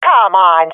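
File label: taking fire friendly, swat female; Counter Strike Model Pack; CS:GO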